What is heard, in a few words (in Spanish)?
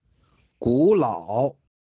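"古老", chino mandarin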